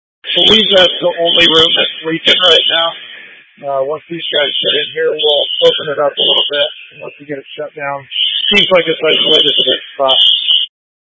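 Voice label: Incident Tac 1, Scanner audio recording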